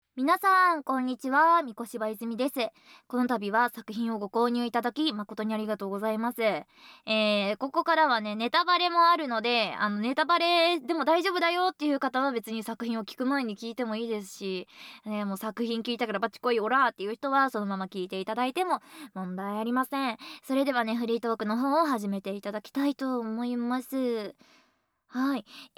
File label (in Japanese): フリートーク; 豪華フリートーク！